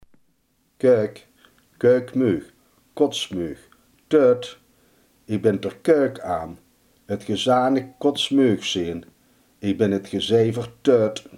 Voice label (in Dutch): "spuugzat", Limburgs